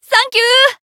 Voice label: Voice healed jp